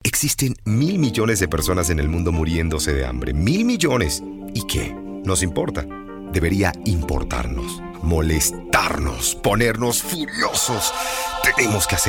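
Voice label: Spanish Latin America, Commercial; GoLocalise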